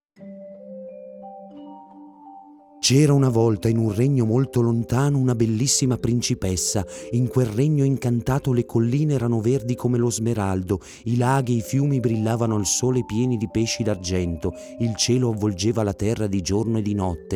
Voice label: ITALIAN MALE PRINCESS FAIRY TALE AUDIOBOOK